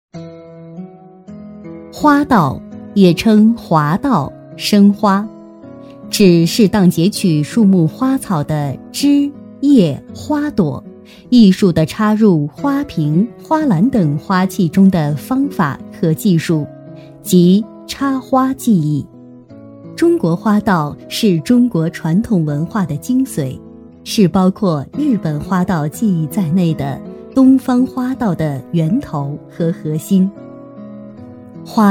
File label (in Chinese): 女, 专题配音, 花道, 大气舒缓; 知性稳重; 年轻舒缓; 女31-专题配音《花道》-大气舒缓